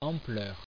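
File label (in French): "ampleur" French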